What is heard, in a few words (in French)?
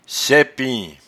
"saipin", patois jura